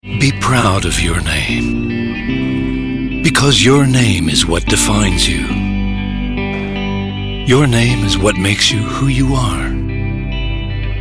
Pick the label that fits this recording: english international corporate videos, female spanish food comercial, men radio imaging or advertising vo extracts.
advertising vo extracts